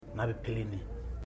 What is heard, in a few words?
"nineteen", Ipili